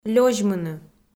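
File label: "лёзьмыны", Komi, female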